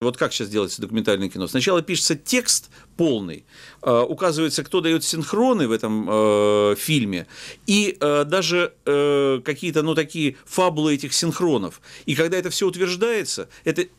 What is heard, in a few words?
Vitalij Manskij voice